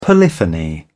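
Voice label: "polyphony", British English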